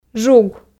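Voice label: "жуг", Komi, female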